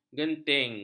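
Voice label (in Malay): "genting", Malay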